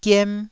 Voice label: "giem1", Taiwanese syllable